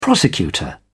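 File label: "prosecutor", British English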